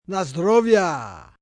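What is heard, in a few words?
Congrats Russian M, male, Russian 'na zdorovie' (cheers); (human voice speaking); Talking Sound Effects; Sound Effects:Voice; VoiceBox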